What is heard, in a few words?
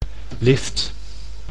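"lift", Dutch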